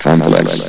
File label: "complex", vox